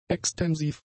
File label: "extensiv", German; adjectives